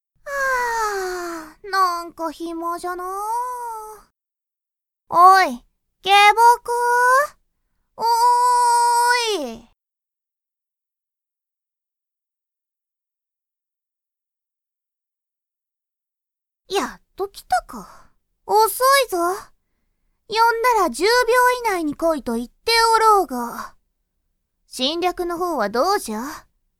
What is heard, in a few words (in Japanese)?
バイノーラル導入 効果音なし, ASMR